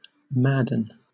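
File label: "madden", British English; pronunciations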